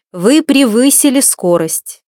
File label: over speed limit, voice female; RU; MioMap C220; iGO831